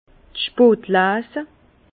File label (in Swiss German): "spotlese", Bas Rhin; vin; vendanges tardives